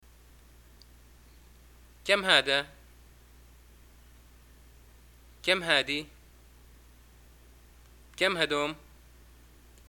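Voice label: shopping how much, Libyan arabic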